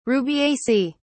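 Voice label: "rubiaceae", English, British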